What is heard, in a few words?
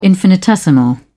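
"infinitesimal", British English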